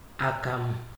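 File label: "akamu", Nigerian Pidgin, male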